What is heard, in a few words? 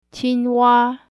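qingwa, frog, mandarin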